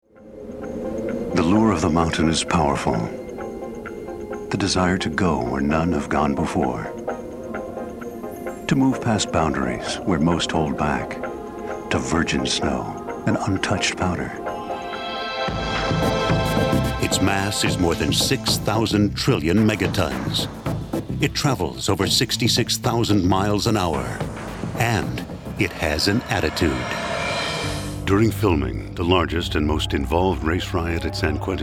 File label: Narration